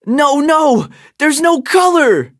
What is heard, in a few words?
defeat, en; Cookie Run